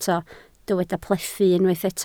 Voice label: "plethu", Welsh